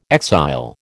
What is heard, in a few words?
"exile", American